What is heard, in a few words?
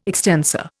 "extensor", Indian